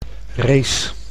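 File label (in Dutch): "race", Dutch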